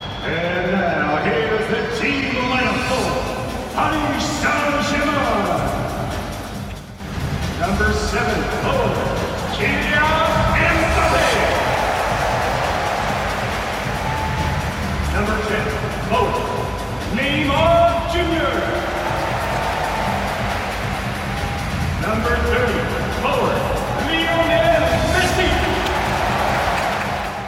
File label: stadium announce; スタジアムアナウンス「PSG (リーグ・アン所属) / GSW (NBA所属) 来日試合 スターター紹介」